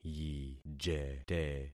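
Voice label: "yijete", concatenated syllables; Affixing experiment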